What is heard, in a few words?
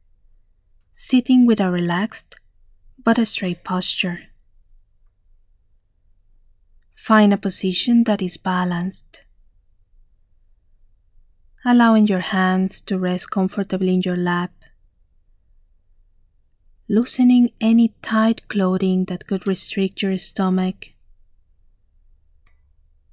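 General mindfulness meditation, guided meditation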